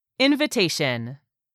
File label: "invitation", English; 3000 words